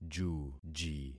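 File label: "juji", concatenated syllables; Affixing experiment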